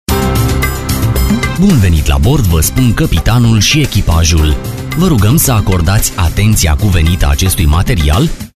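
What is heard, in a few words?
romanian male voice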